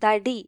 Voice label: "wood", ml; gcompris